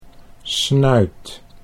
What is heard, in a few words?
"snuit", Dutch